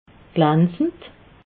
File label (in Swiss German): "glanzend", alsace